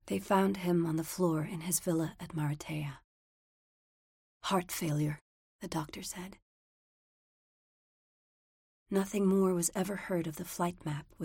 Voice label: monologue; Ustica

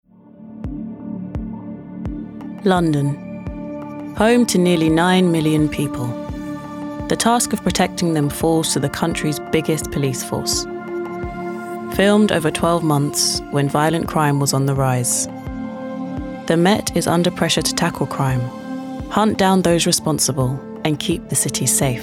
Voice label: VO Narrative

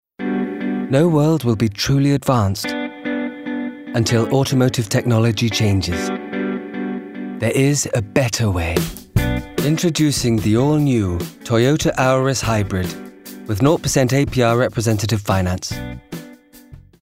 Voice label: commercial; Toyota Auris

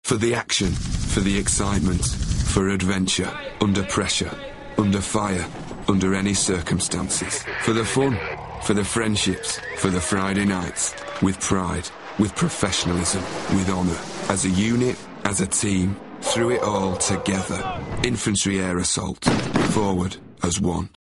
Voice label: ARMY PASSIONATE WITH GRAVITAS

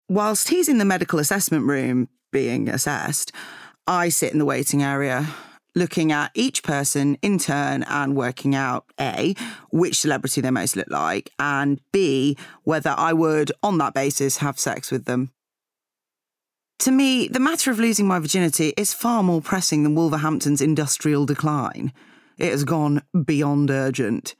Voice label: Bookread

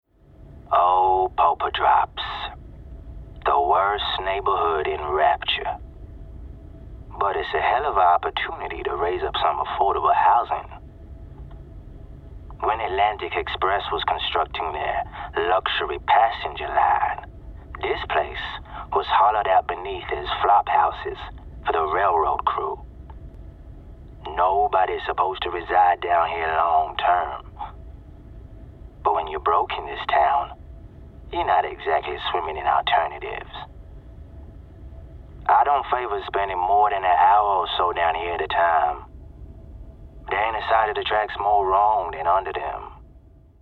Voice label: Southern US; Bioshock 2; Augustus Sinclair

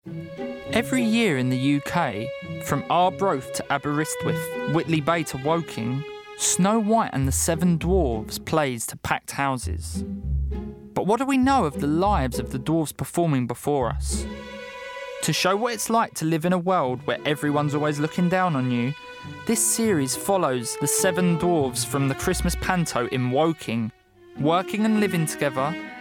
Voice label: NARR; 17 Dwarves; Cheeky & Whimsical

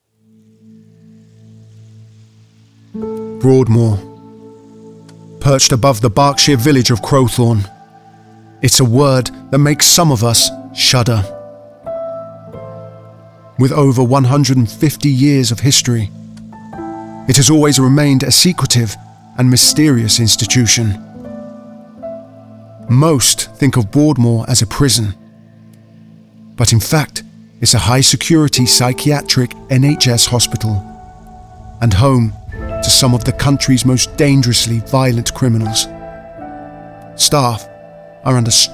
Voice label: Documentary

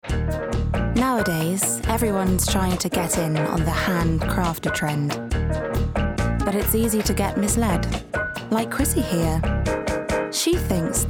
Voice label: Commercial; Smirnoff

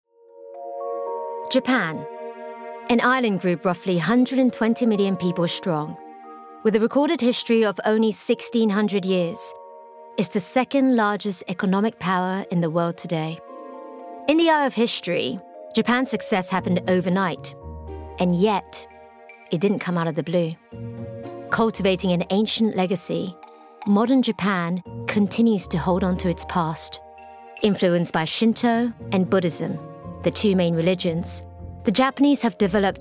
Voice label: Documentary

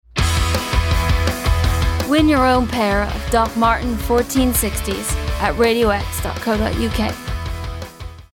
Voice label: Endlines Radio; Radio X

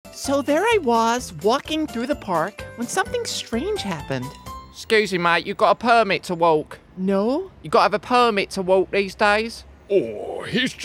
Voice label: Animation

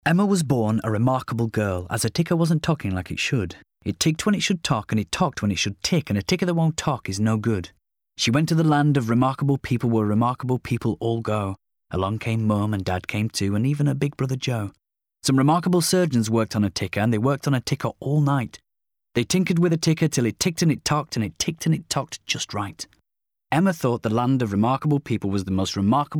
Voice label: Radio Poem

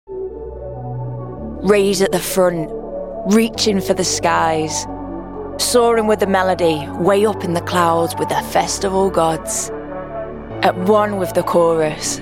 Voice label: promo

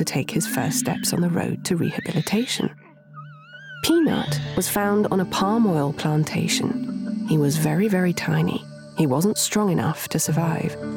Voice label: orangutan doc

